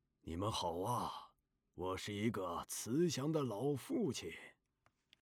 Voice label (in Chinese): 男 角色 慈祥老人男